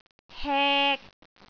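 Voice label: "hek3", Cantonese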